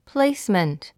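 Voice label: "placement", English word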